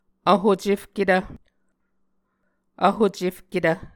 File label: "vhocefketv", Muscogee